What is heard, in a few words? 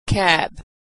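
"cab", American English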